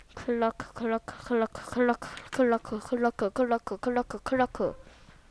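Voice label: "clock", spoken word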